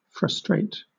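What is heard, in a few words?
"frustrate", British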